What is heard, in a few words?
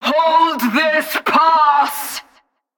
Female Chokepoint Line, dialogue; NPC; Heist Cultist Chokepoint